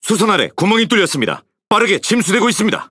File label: Speech Alarms Critical Flooding, KO